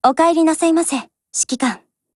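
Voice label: HELLO Japanese, Voice; GF Alarm; FAMAS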